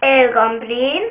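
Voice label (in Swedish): "ogonbryn", Swedish; ktuberling; tdegames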